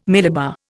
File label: "millibar", Indian